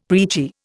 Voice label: "preachy", Indian; Dictionary